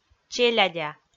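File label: "челядя", Komi, female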